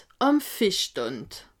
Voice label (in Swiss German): "fischstand", alsace